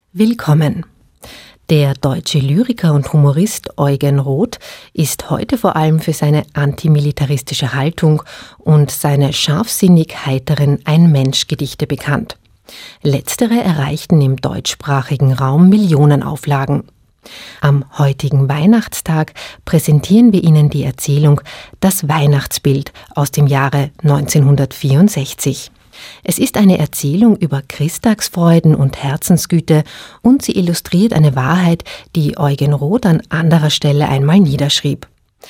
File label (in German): Ansage